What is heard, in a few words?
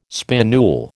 "spinule", American